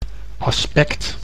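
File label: "aspect", Dutch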